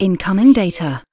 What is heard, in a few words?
UK female incoming data; lynne publishing